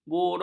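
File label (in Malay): "burut", Malay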